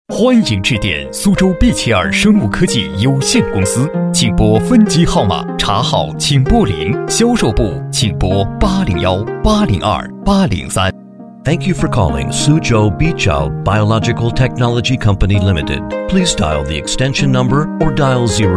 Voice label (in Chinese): 男 彩铃 中英文彩铃